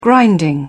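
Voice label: "grinding", British English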